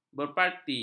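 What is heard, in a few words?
"berparti", Malay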